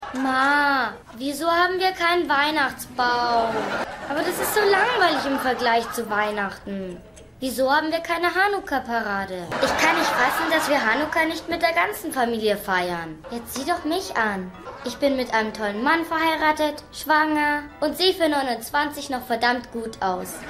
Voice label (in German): Fran Fine Jung; Die Nanny